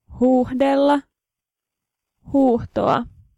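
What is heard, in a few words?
Finnish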